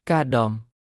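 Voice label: Khmer "កាតំ"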